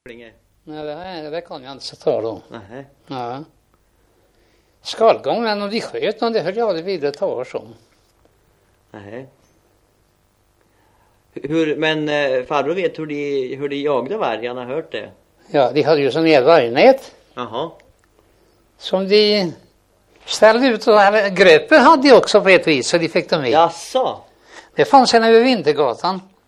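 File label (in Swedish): Hycklinge Östergötland, dialekter; Folkminnen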